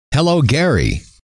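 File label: hello, male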